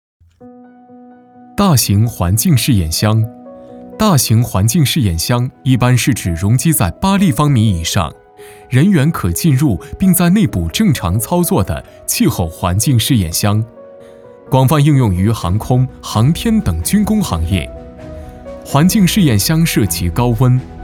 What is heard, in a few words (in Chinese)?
男 科技介绍 大型环境试验箱